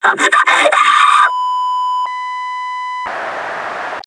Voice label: fire scream, metropolice; npc